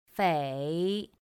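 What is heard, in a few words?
"fěi", Chinese; pinyin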